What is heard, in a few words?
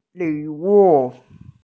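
"gift", Mandarin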